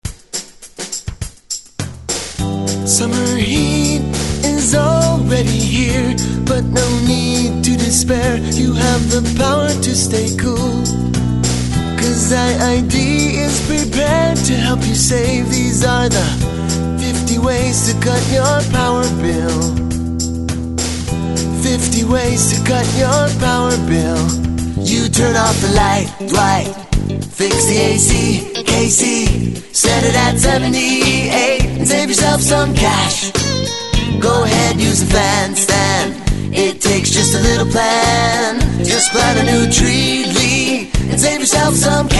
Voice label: radio